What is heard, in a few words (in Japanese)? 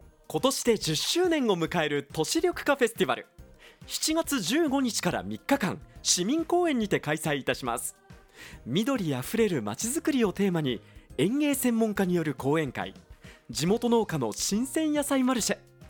明るい感じ